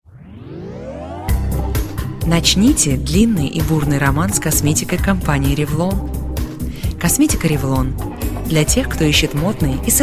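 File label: Russian, female, commercial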